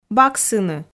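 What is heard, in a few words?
"баксыны", Komi, female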